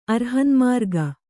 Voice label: "ಅರ್ಹನ್ಮಾರ್ಗ", Kannada

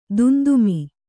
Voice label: "ದುಂದುಮಿ", Kannada

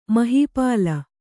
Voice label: "ಮಹಿಪಾಲ", kannada

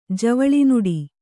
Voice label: "ಜವಳಿನುಡಿ", kannada

